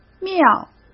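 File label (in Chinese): "庙", Mandarin Chinese; chinese flashcards; hsk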